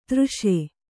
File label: "ತರ್ಷ", Kannada